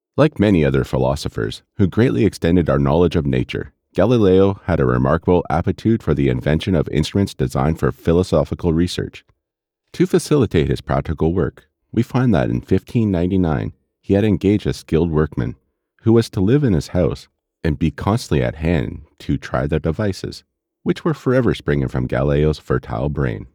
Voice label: Narrative Script